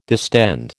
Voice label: "distend", American